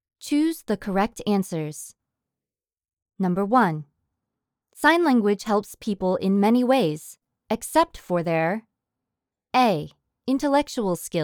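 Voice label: eLearning Calm Clear, Female; ESL; American English voice-over artist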